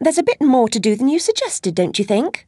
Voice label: chitchat; svencoop; Alice